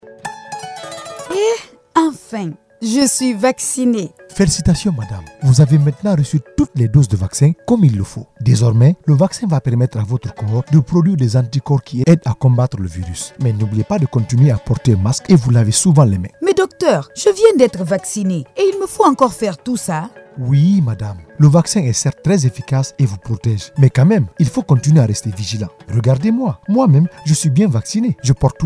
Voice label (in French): SPOT COVAX, FRANCAIS